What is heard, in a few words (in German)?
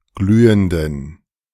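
"glühenden", German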